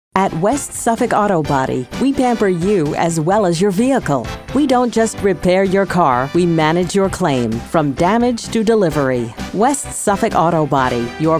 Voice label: On Hold IVR

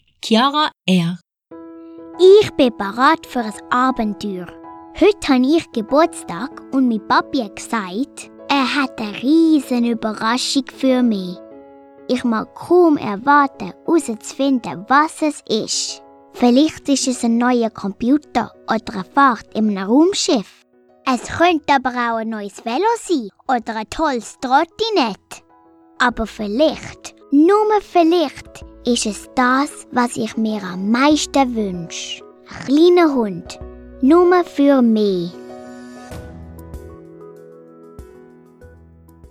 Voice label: SWISS GERMAN

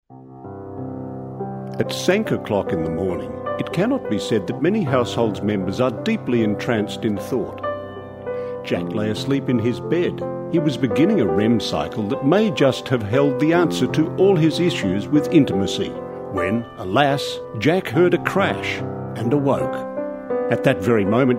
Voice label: narrative; Malice Aforethought

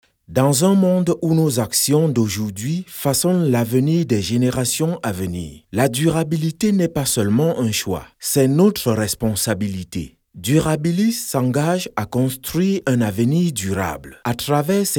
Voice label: Corporate Commercial

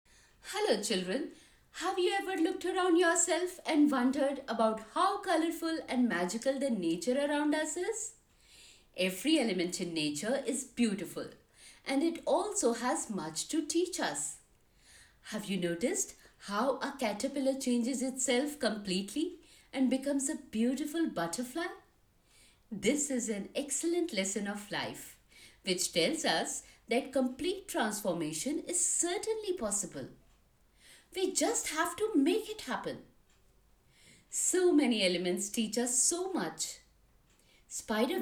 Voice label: narration English

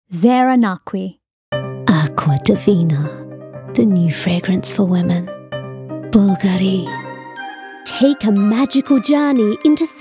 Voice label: Neutral English

